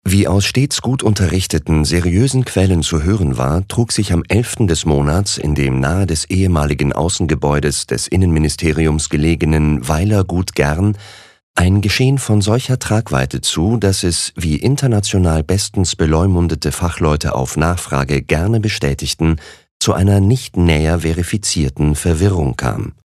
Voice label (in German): Trocken Neutral